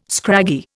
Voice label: "scraggy", Indian